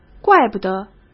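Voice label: "怪不得" Mandarin Chinese; chinese-flashcards; hsk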